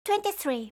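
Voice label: "twenty-three", English; eng suuji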